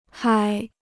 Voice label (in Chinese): "嗨", Chinese